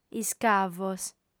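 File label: "iscavos", female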